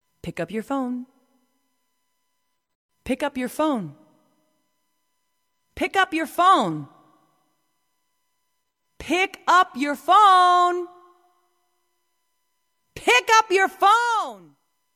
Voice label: female talking ringtones